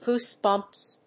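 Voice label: "goose bumps", English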